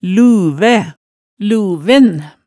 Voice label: "luvæ", hemsedalsmaalet, tale

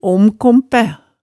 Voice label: "omkompæ", Hemsedal

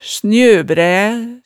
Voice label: "snjøbrææ", hemsedalsmaalet

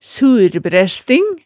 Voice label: "surbræsting", hemsedalsmaalet

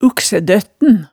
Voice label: "uksædøttn", hemsedalsmaalet, tale

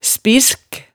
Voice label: "spisk", hemsedalsmaalet

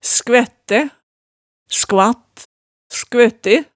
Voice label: "skvættæ", hemsedalsmaalet

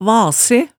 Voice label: "vase", hemsedalsmaalet tale

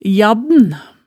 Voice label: "jabbn", hemsedalsmaalet

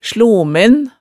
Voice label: "slomen", hemsedalsmaalet

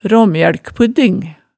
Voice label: "raamjølkpudding", Hemsedal

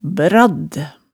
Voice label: "bradd", hemsedals maalet tale

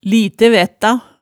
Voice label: "lite vætta", hemsedalsmaalet, tale

